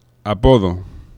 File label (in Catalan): "apodo", aproximants; laboratori fonetica